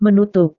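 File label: "menutup", Indonesian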